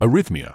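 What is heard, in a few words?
"arrhythmia", British English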